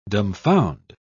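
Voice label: "dumbfound", British English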